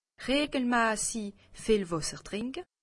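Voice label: "wasser", Alsatian; medecine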